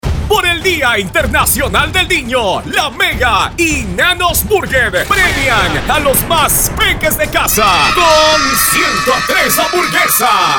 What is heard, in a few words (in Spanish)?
PROMOCIONAL; MEGA HAMBURGUESAS